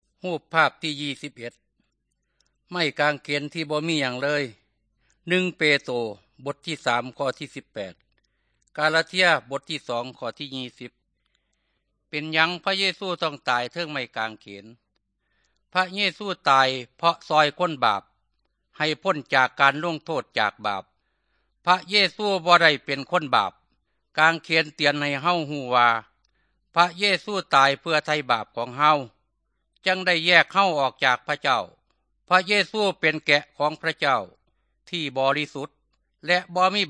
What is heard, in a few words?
good news thai northeastern